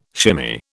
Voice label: "shimmy", British